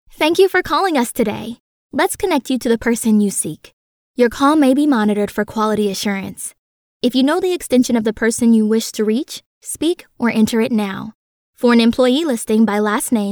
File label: english us female ivr